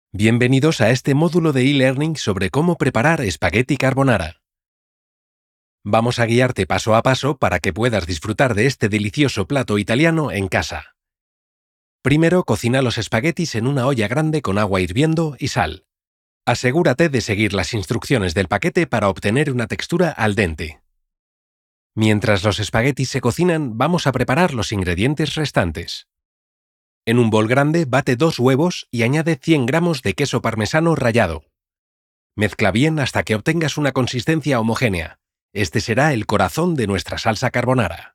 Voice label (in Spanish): elearning